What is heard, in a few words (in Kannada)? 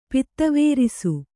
"ಪಿತ್ತವೇರಿಸು", Kannada